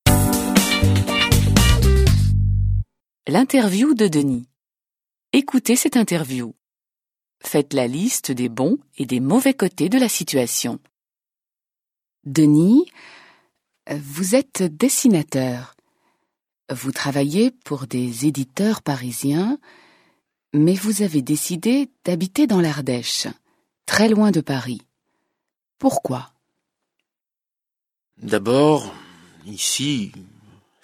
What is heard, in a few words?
French